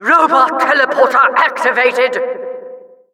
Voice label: Announcer tele activated; Robot Teleporter activated!

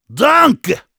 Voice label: heavy thanks, de; "Danke!"

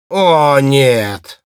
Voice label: negative vocalization, ru; Ооо, нет.

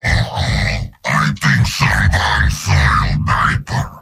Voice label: Heavy domination

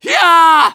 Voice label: Medic battlecry; Hyaaaa!

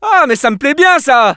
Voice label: good job fr; Scout

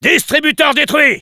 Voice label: autodestroyed dispenser fr; Engineer

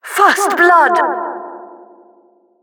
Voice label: Announcer first blood

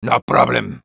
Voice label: positive vocalization, es; "No problem..."; Sniper

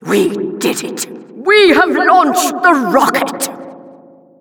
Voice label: Announcer rocket launch

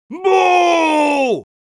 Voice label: jeers, es; Heavy

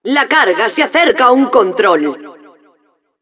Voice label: announcer cart defender warning, es

